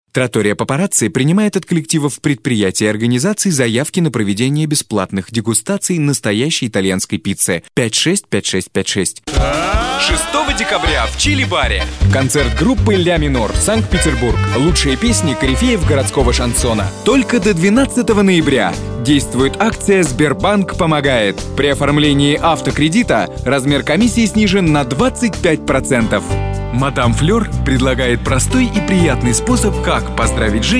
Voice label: Rus